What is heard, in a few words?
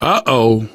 reaction negative, voice; source engine; left 4 dead 2; survivor; manager